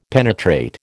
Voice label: "penetrate", American

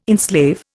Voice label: "enslave", Indian

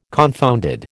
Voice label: "confounded", American

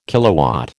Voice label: "kilowatt", American

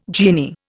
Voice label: "genie", Indian

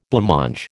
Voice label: "blancmange", American

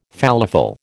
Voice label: "falafel", American

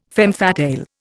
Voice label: "femme fatale", Indian

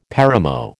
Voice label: "paramo", American

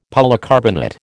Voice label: "polycarbonate", American

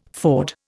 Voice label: "ford", Indian

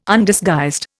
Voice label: "Undisguised", Indian

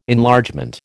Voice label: "Enlargement", American

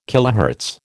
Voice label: "kilohertz", American

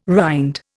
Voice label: "rind", Indian

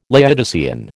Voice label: "Laodicean", American

